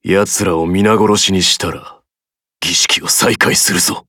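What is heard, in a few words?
start, Japanese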